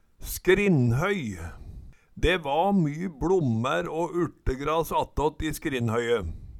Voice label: "skrinnhoy", Numedalsmål

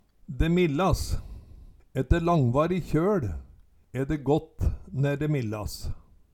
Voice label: "de millas", Numedalsmål

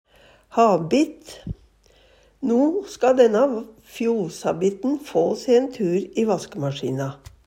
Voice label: habitt, Numedalsmål; No ska dænna fjoshabitten få se æin tur i vaskemasjina.

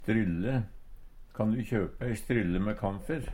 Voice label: strylle, Numedalsmål; Substantiv hokjønn; Uttrykk

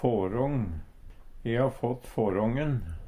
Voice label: "fårång", Numedalsmål; E har fått fårången.

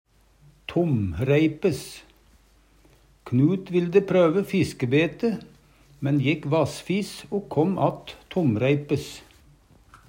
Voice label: tomreipes, Numedalsmål; Knut vilde prøve fiskebete, men jekk vassfis o kåm att tomreipes.

